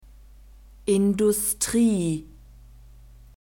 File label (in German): "industrie", German; nouns gender feminine